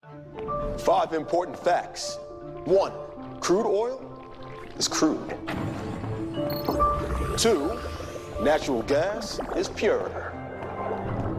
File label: commercial men